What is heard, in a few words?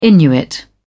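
"inuit", English, uk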